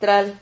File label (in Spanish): "tral", Silabas